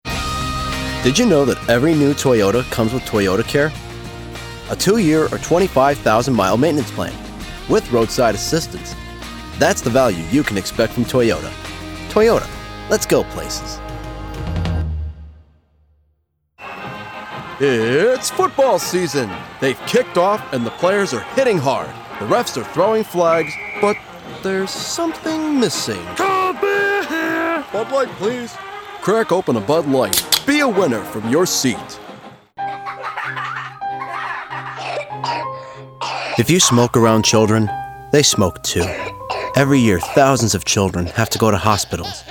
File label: COMMERCIAL